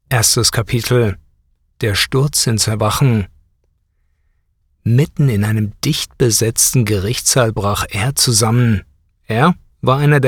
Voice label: Audio Book Fiction Engaging and Riveting